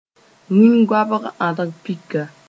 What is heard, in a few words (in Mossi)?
"wĩn-goabg ãd-pika", moore